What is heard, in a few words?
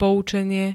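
"poučenie", Slovak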